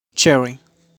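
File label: "Cherry", pronunciation; Frutas; fruits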